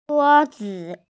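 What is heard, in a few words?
"zhuo1zi5", Mandarin Chinese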